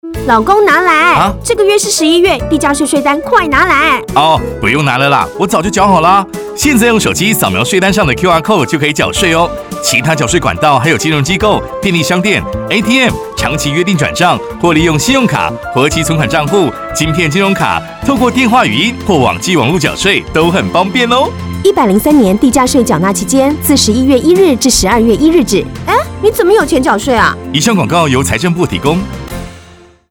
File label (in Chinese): 地價稅宣導30秒廣播廣告-國語; 地價稅開徵宣導短片國語